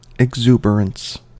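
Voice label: "exuberance", American English; pronunciations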